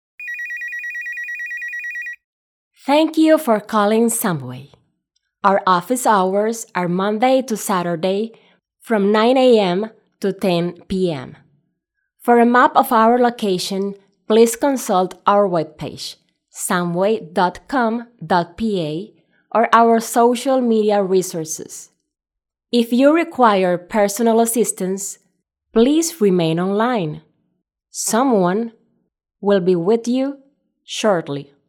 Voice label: answer eng